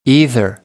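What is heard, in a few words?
"either", American English; AmericanBritish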